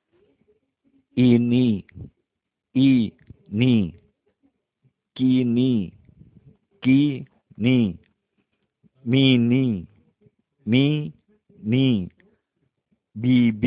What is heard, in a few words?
How to pronounce vowel I In Indonesia